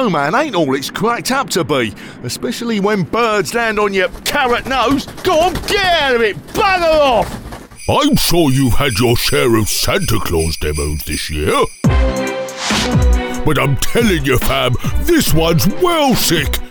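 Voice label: UK English, male, Character Christmas Mix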